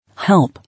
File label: "Help", English